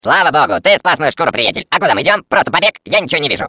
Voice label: Defective Turret, ru; sp sabotage factory; defect chat